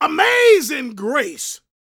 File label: PREACHIN, Gospel, PREACHER; Spectrasonics; Vocal Planet Vol.1 Gospel